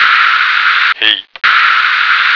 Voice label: "heap", American